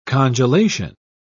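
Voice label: "congelation", British English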